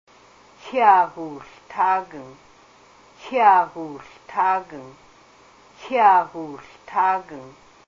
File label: I am eating some meat, Haida language; Kyaa uu hl táagang; I'm eating some meat; recorded on Wednesday, March, 2003 in Ketchikan